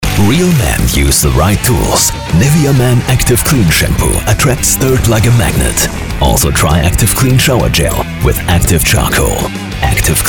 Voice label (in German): Werbung